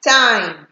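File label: "time", Nigerian Pidgin, female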